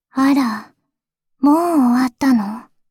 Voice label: Voice victory